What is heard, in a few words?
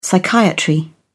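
"psychiatry", British English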